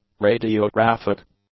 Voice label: "radiotraffic", English; nouns

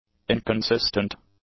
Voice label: "inconsistent", English; adjectives

